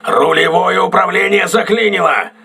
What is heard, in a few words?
voice message rudder damaged, crew ships russian